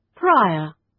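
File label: "prior" British English; Time, history; Thời gian, lịch sử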